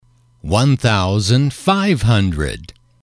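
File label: "one thousand five hundred", English, male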